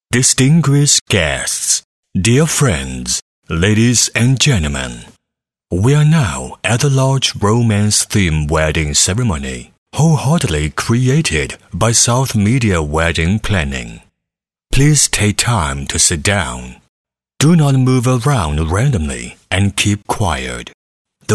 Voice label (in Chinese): 英男,专题,提醒词